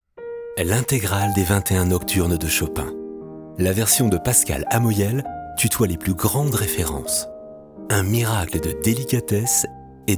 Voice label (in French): Voix Off PUB; Chopin